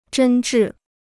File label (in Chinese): "真挚", Chinese